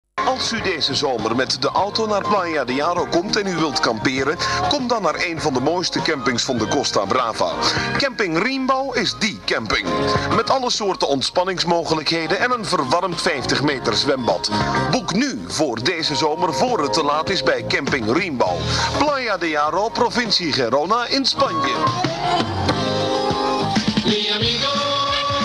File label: commercial